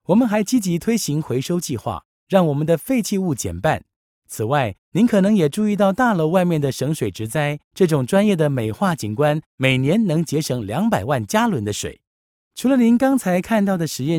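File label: Chinese Taiwanese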